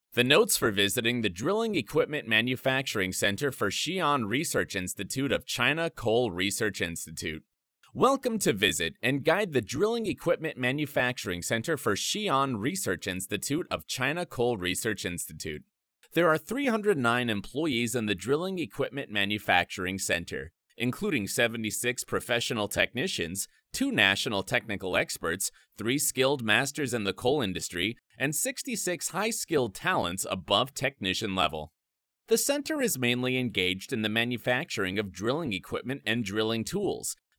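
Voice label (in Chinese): 外籍英男英文安全宣教片解说词; 英文定稿安全宣教片解说词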